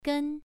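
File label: "gen", Mandarin Chinese, first tone, pronunciation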